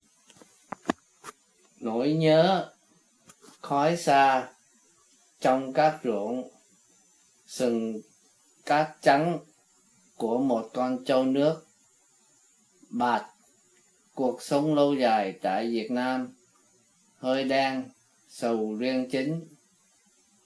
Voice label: Tanka sequence vietnamese